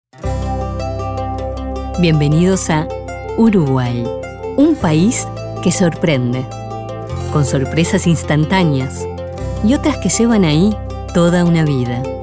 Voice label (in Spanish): spot institucional turismo